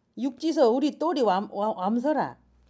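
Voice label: come, Jeju-eo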